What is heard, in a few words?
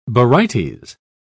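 "barytes", British English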